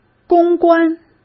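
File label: "公关", Mandarin; chinese flashcards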